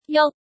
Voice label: "juk1", cantonese

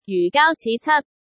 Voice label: "jyu4 gaau1 ci5 cat1", cantonese

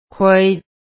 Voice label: "kwai4", cantonese

